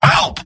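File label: help me, robot; mvm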